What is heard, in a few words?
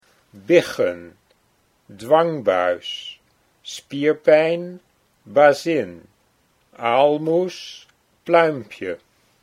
biggen dwangbuis, Dutch